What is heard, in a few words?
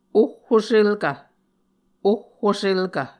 "ohhoselkv", Muscogee; Mvskoke Words with Repeated Consonants; A Dictionary of Creek/Muskogee; SIL International